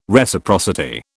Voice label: "Reciprocity", British

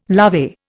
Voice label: "lovey", Indian

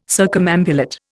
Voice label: "circumambulate", Indian

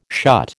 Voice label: "shut", American

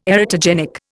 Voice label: "erotogenic", Indian

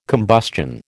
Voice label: "combustion", American

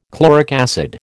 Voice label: "chloric acid", American

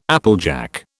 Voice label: "applejack", British

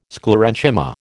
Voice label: "Sclerenchyma", American

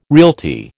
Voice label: "realty", American

